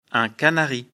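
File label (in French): "canari", French; afrikalan; lecture fr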